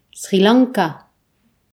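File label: "sri lanka", Breton; gcompris; geography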